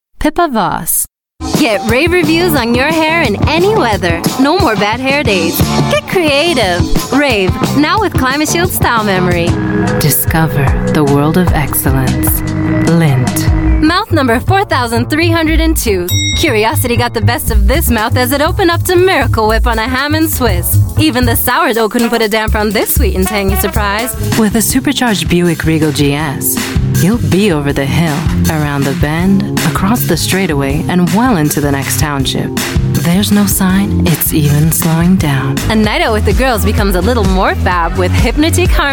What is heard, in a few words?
Brazilian Portuguese Commercial, Female